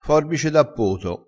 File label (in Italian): "forbice da poto", crespinese